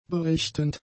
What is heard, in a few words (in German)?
"berichtend", German; adjectives